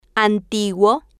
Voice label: "antiguo", Spanish